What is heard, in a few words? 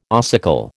"ossicle", American